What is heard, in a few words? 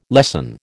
"lessen", American